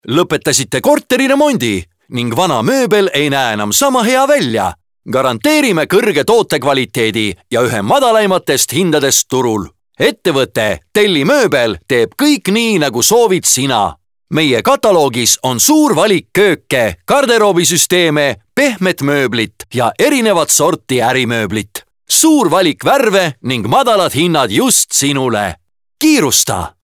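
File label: Estonian male Positive, vo examples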